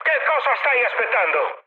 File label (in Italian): attack position, mood high, speech it